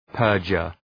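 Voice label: "perjure", English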